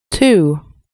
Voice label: "two", inglese; numeri in inglese